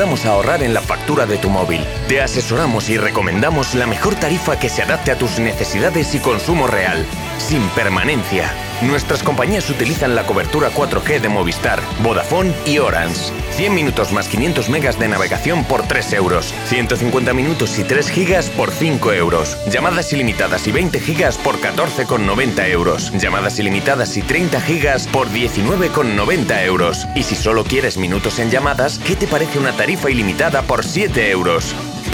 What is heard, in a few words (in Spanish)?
EU Spanish Spot Radio Foto video